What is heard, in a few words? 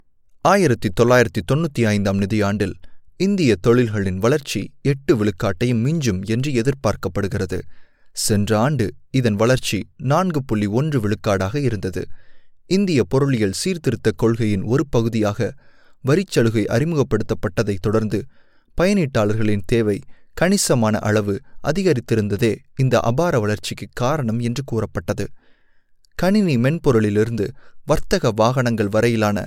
Narrative; English (British)